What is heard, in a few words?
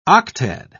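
"octad", English, British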